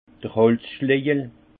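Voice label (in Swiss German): "holz schlejel", Haut Rhin; vin; mailloche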